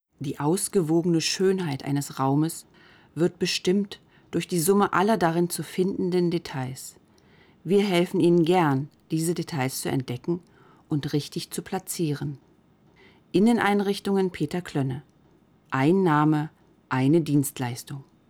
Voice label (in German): probe imagefilm